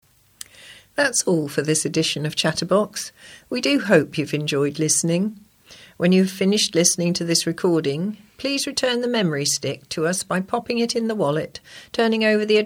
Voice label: Closing Announcement; Chatterbox; Norwich Evening News